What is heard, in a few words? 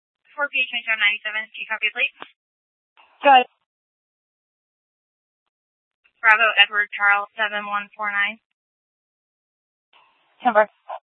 Police South Dispatch; Regional Wrlss Cooperative (RWC); Scttsdle Police